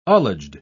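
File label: "ullaged", British English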